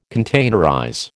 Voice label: "containerize", American